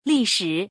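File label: "li4shi3", Mandarin Chinese; 历史; 歴史; HSK３級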